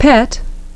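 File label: "pet", English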